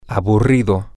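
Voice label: boring, Spanish, male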